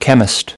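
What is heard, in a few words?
"chemist", English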